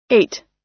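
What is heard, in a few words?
"eight", American English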